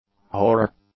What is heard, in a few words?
"horror", English; nouns